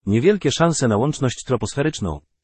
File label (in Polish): vhf niewielkie szanse na lacznosc troposferyczna, pl google male; sr0wx